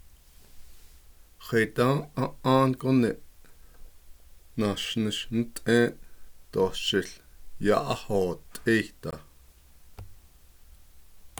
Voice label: navajo postposition; While I was working in the mine (shaft) last year and I didn’t like it (inside the place).; ashnish ńt’éé’ --- doo shił yá’áhoot’éeh da.